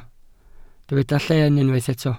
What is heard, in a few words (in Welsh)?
"lleen" Welsh